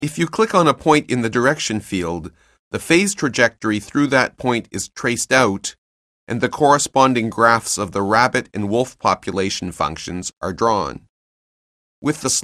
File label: narration; CALCULUS 7E; cengage